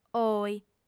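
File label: "ohi", female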